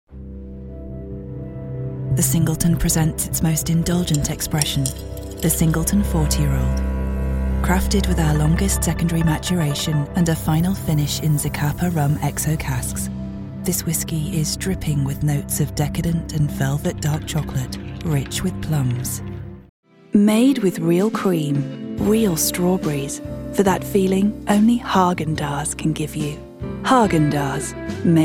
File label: Luxury Commercial